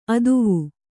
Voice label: "ಅತ್ವ", Kannada

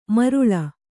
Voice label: "ಮರುಳ", kannada

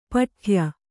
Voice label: "ಪಟ್ಟೆಯ", Kannada

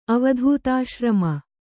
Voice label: "ಅವಧೂತಾಶ್ರಮ", Kannada

